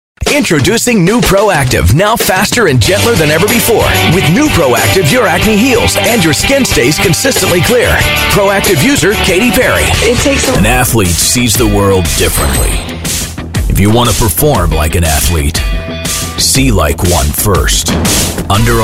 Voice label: commerical

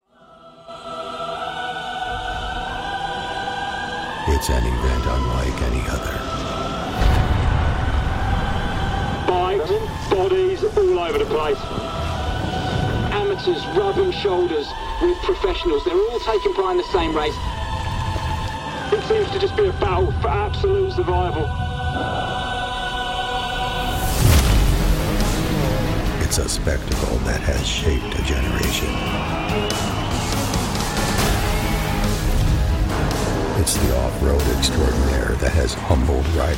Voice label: show opener; Red Bull Hare Scramble 2019

